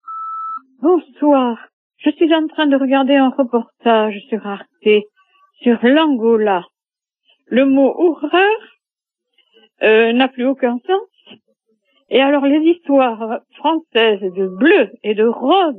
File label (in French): répondeur; Angola